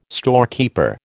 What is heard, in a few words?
"storekeeper", American